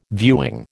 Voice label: "viewing", American